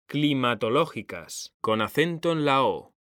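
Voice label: "CLIMATOLÓGICAS", Spanish; mekanta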